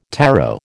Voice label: "taro", American